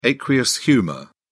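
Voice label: "aqueous humour", British English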